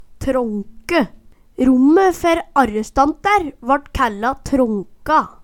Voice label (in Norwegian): trånke, Numedalsmål